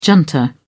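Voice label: "junta", British English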